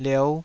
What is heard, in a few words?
"liou5", Taiwanese